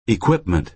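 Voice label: "equipment", American English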